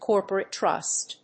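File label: "corporate trust", English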